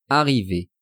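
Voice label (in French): "arriver", French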